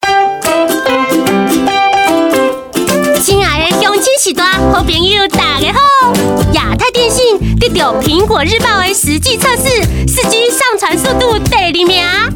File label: Publicity Hokkien Taiwan, Female